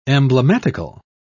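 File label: "emblematical", British English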